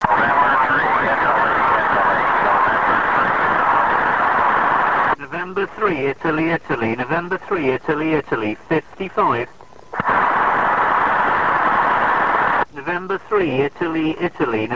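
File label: amateur radio contact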